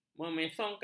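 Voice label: "memesongkan", Malay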